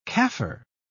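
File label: "kaffir", British English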